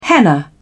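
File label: "henna", British English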